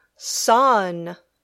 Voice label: "son", English